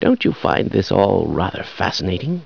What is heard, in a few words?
"fascinating", scientist